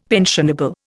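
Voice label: "pensionable", Indian English; Dictionary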